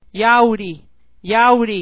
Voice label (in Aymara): "yawri", Aymara; yauri